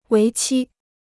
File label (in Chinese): "为期", Chinese